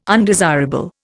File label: "undesirable", Indian